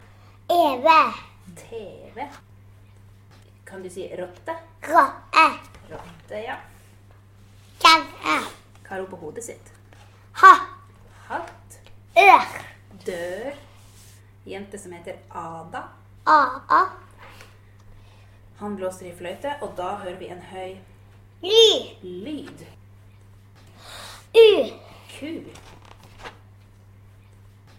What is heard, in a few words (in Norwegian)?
glottisstot